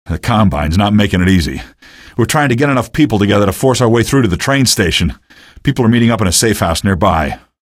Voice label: safe house, male; video games; npc; source engine; episodic; episode 1